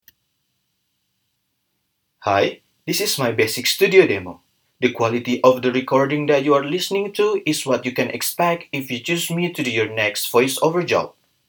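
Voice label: English basic studio; INGGRIS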